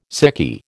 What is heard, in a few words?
"sickie", American